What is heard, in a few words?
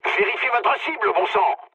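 player friendly fire, mood low, French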